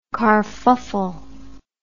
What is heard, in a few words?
"carfuffle", English